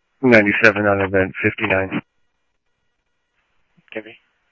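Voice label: Mesa Police Department, scanner audio; MESA PD 1 Fiesta MesaPoliceDep; Mesa Simulcast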